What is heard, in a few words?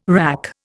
"wrack", Indian